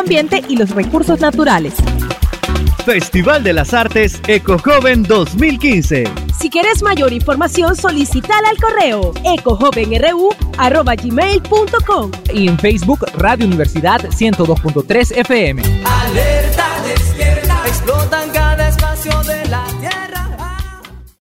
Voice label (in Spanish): narrativa; eco joven 2015